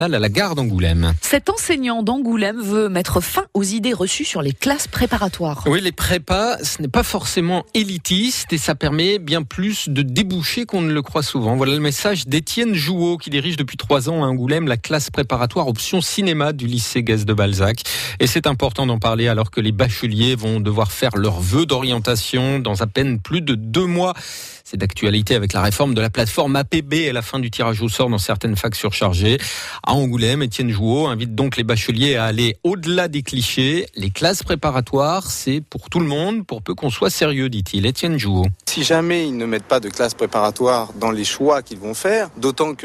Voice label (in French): reportage